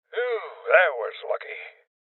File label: Voices Armor Shot Male Old; rebel cops 2019 gamerip